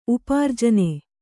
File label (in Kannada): "ಉಪಾರ್ಜನ", Kannada